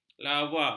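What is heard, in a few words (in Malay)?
"lava", Malay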